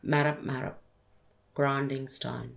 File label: "marap-marap" grinding stone